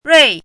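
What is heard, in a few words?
"rui4" Mandarin Chinese, falling tone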